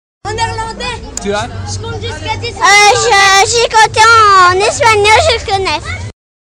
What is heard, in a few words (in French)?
Compter espagnol, Les enfants du quartier comptent en différentes langues; j'ai compté en espagnol, je connais